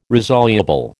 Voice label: "resoluble", American